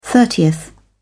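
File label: "thirtieth", British English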